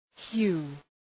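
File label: "hue", English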